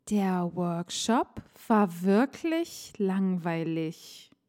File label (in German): Aussprache Ich-Laut der workshop war wirklich langweilig; DaF